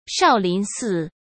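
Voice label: "少林寺", Mandarin Chinese; chinese flashcards; hsk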